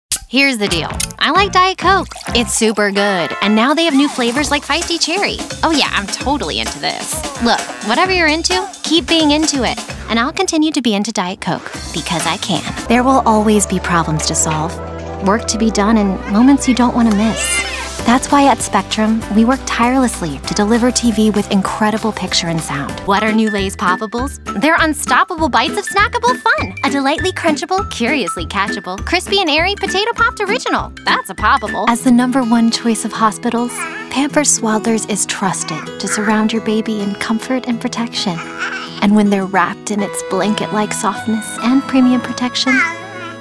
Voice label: VO Commercial